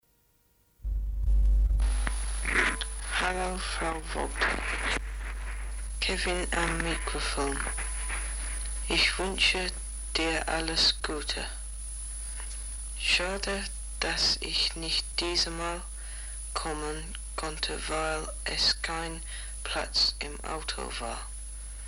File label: German Message; 1972 Germany